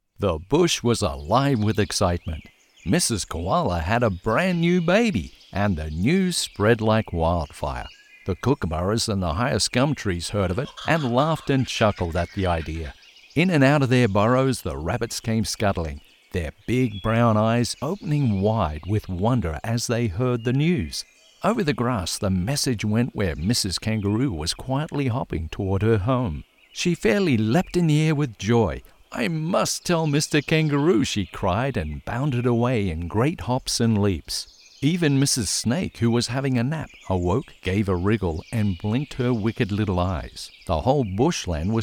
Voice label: Childrens Story